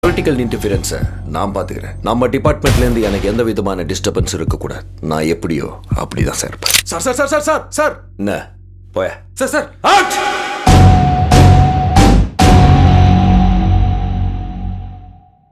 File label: Tamil Dailouge; Pon Manickavel; Prabhu Deva